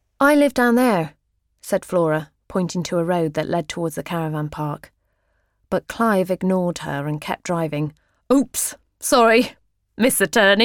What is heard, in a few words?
Audiobook